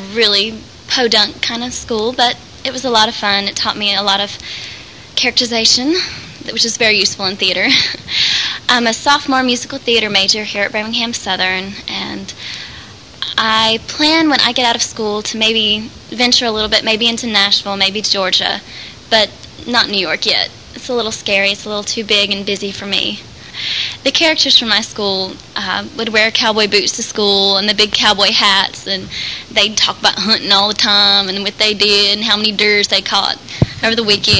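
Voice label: alabama, International Dialects of English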